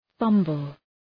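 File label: "fumble", English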